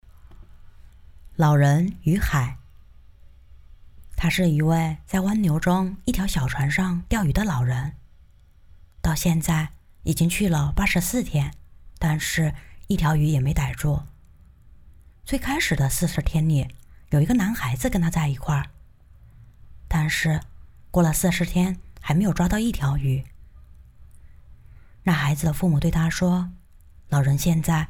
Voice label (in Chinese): 儿童读物试音